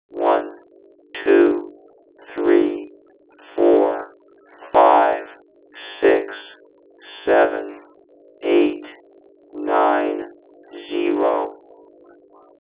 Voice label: 32 filters, vocoder; DE1 SOC; DSP